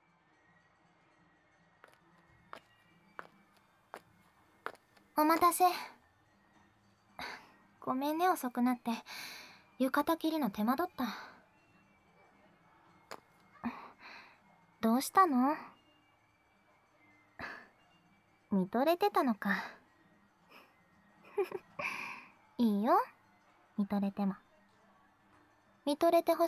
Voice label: Summer, Fireworks, Yukata, and Sex, ASMR

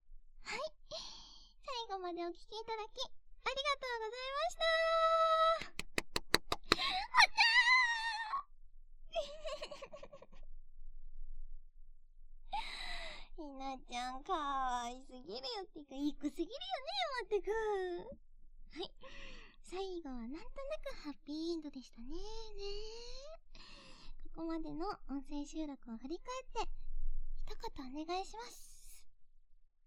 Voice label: FREE TALK, ASMR

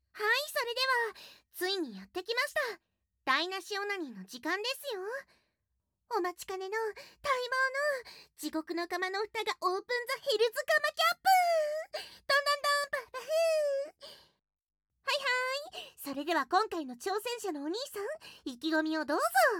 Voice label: Ruined Orgasm, ASMR

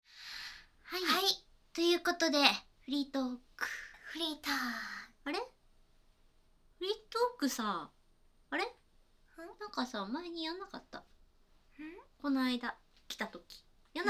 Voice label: FreeTalk, ASMR

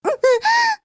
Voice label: h voice; おっぱい図鑑

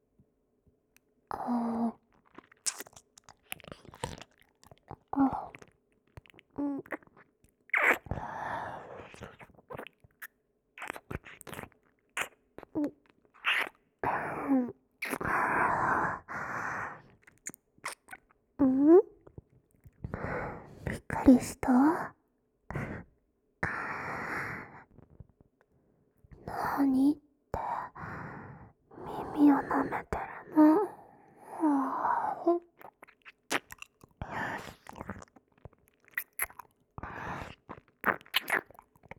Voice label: tsundere, ASMR

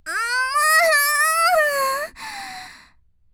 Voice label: h voice; おっぱい図鑑

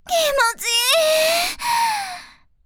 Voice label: h voice; おっぱい図鑑

